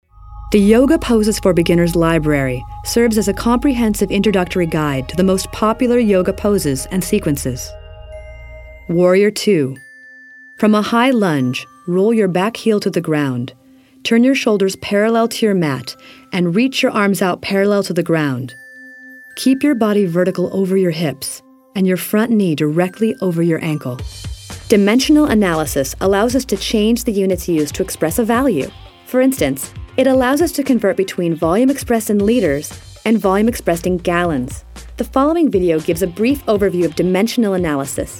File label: ELearning